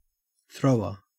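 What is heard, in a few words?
"thrower", Australian English; pronunciations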